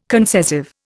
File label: "concessive", Indian